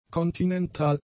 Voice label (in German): "kontinental", German; adjectives